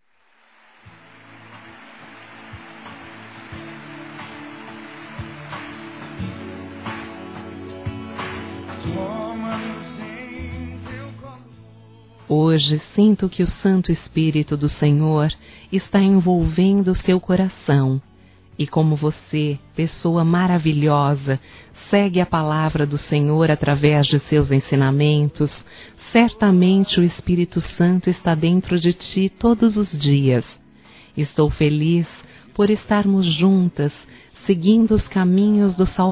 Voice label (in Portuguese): Irmã Em Cristo Feminino, Telemensagens, Dia das Mães; Telemensagens 2017